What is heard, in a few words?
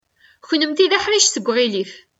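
Kabyle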